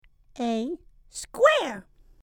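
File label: "square", English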